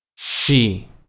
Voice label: "she", synth